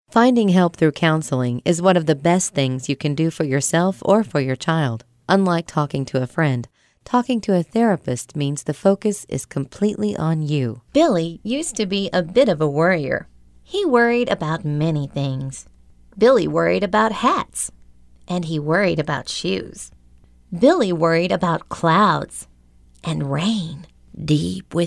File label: Audiobook